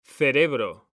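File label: "cerebro", Spanish, male; mekanta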